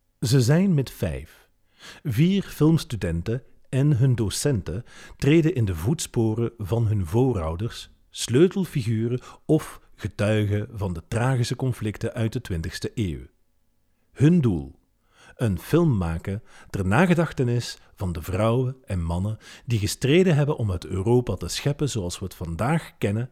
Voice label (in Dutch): intro narrateur, nl